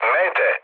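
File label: Serbian enemy detected mood low; speech; War Thunder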